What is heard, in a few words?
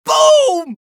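scout taunt flip fun, male voice; taunts; tf2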